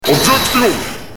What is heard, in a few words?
"Objection", French; Karma